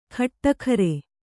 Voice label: "ಖಟ್ಟಖರೆ", Kannada

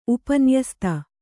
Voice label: "ಉಪನ್ಯಸ್ತ", kannada

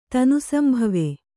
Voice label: "ತನುಸಂಭವ", Kannada

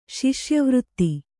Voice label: "ಶಿಷ್ಯವೃತ್ತಿ", kannada

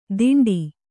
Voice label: "ತಿಂಡಿ", Kannada

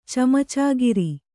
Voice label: "ಚಮಚಾಗಿರಿ", Kannada; camacāgiri; noun; servile flattery; sycophancy.

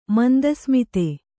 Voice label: "ಮಂದಸ್ಮಿತೆ", Kannada

